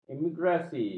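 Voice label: "emigrasi", Malay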